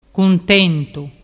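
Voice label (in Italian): "cuntentu", dialect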